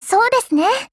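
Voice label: ACCEPT, Japanese; Danuvia43M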